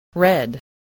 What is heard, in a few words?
"red", spelling voice